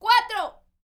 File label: MEX FEM, MEXICAN; ILIO; Vocal Planet VOL-5